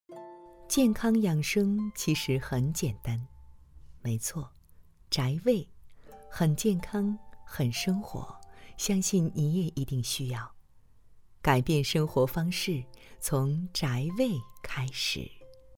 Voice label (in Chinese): 女国 广告 食品饮料 温柔; 宅味